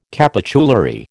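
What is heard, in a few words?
"capitulary", American English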